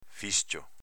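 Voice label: "fiscio", Venetian dialect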